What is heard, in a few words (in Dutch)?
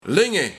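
"Linge", Luik, Linggen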